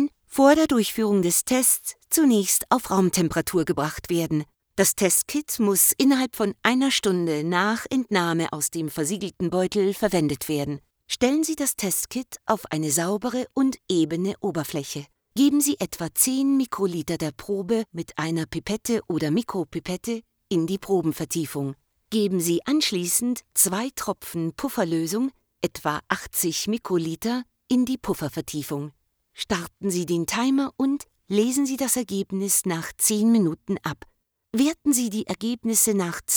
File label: Covid Medical Narration GER